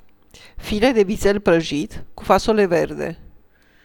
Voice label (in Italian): menu, rumeno; frasi utili